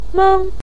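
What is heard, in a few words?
"meng", Mandarin Chinese neutral tone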